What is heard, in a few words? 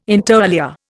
"inter alia", Indian